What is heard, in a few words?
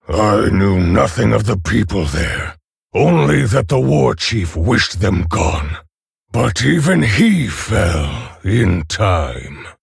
Male Orc Ogre